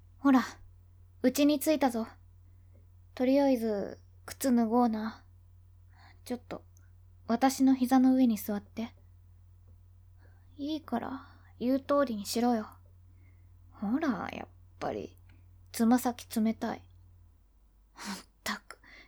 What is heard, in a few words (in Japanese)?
イケメン彼女に看病してもらうシチュエーションボイス, ASMR